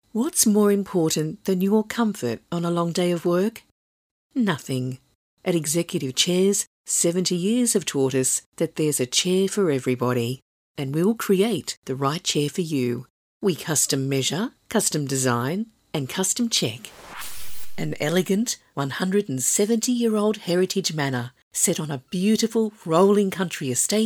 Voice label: Relaxed

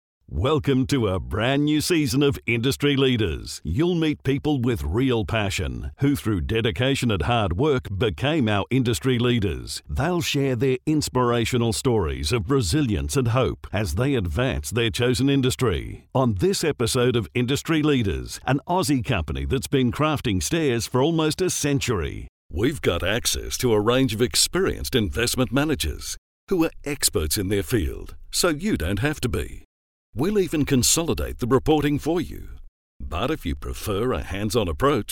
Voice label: Corporate Friendly

